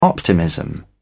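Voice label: "optimism", British English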